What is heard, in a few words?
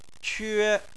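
"que", Chinese, tone 1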